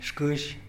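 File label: "xkïzh", Tlacochahuaya Zapotec; dragonfly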